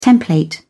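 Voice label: "template", British English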